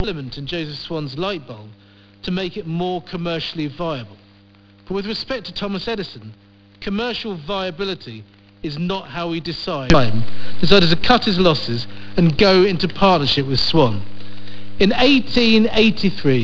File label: Shipping FCST, websdr